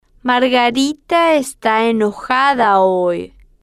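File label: margarita Esta Enojada Hoy, Spanish, female, slow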